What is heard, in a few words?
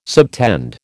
"subtend", American